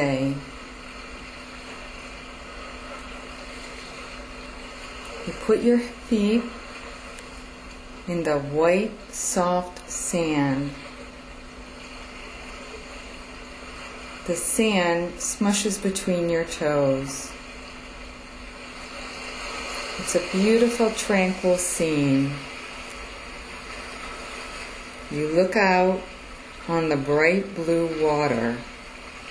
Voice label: Meditation Beach visualizations with ocean sounds